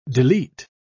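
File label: "delete", English word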